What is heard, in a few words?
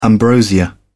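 "ambrosia", English, British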